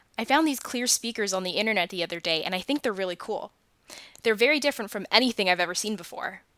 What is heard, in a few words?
clear speaker